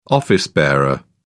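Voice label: "office-bearer", British English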